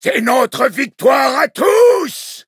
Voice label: victory, fr; cookie run

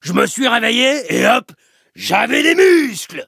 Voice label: level up, fr

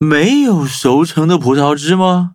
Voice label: loading, zh-hant; cookie run

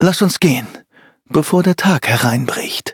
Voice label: loading, de; Cookie Run

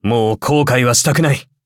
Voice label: upgrade, ja; cookie run

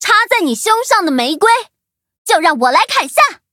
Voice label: loading zh-hant; Cookie Run

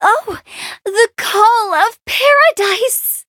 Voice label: touch, en

